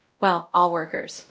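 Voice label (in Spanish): Voice Acting, es, female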